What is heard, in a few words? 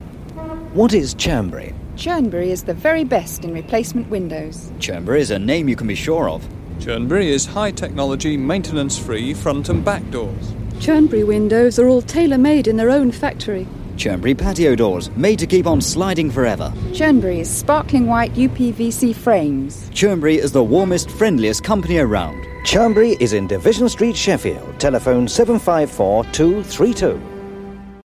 Radio Hallam Advert; Churnberry Windows